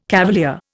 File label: "cavalier", Indian